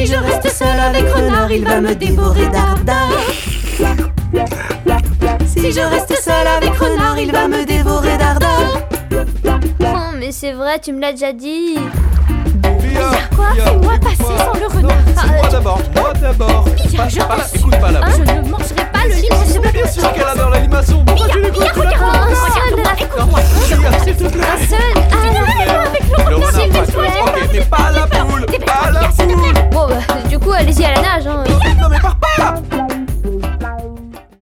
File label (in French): voix poule; La traversee; Maisaura; univers enfant